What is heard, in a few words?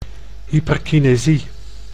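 "hyperkinesie", Dutch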